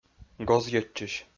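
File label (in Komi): "гозйӧдчысь", Komi-Zyrian, male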